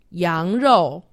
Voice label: "yangrou", Chinese; Survival Chinese